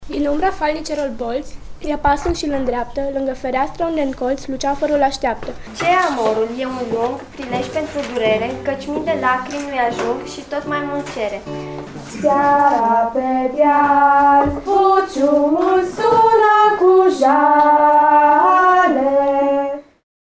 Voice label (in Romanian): vox copii; Eminescu